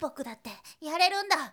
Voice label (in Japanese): shonen bokudatteyarerunnda